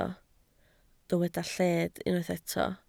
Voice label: "lled", Welsh

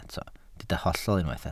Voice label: "hollol" Welsh

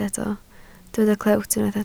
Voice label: "clewt", Welsh

